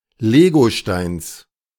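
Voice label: "Legosteins", German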